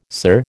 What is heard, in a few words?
"sir", American